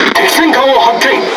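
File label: Speech Detection Enemy Battleship, Japanese; World Of Warships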